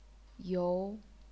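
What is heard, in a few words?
"you", chinese pinyin, second tone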